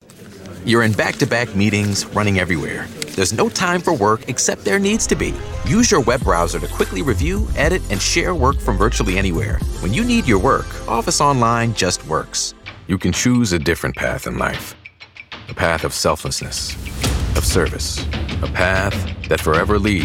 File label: Voiceover Commercial Men; New York; Stewart Talent